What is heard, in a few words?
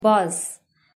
"bus", en; grade1; properties of things